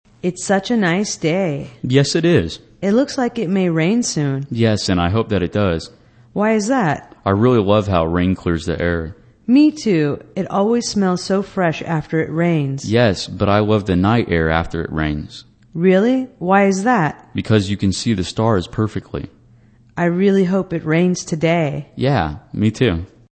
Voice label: english conversation weather